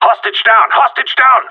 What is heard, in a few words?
hostage down, radio; GSG9